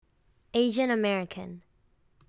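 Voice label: "Asian American", English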